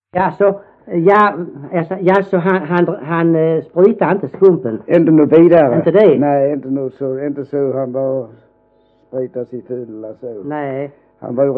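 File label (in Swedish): Vollsjö Skåne, Svenska sagor, dialekter; Folkminnen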